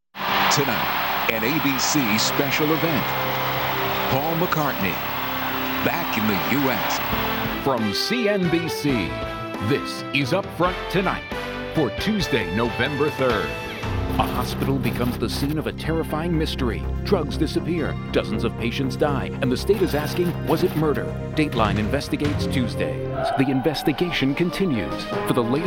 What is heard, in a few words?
promo; Sizzle Reel